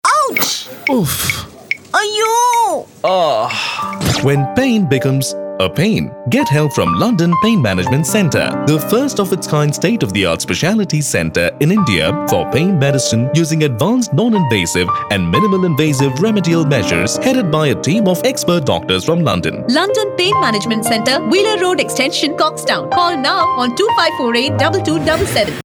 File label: Indian English Advertising